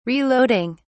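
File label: "reloading", British English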